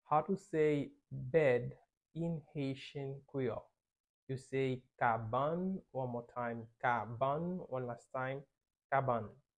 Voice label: How to say Bed in Haitian Creole with pronunciation